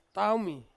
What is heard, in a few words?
"taumi", Ansus; year